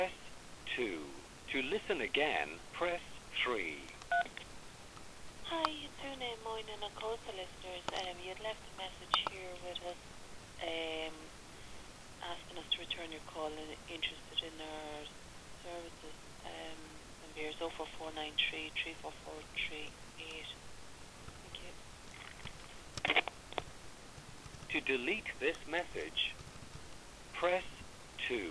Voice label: voicemail